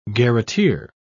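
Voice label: "garreteer", British English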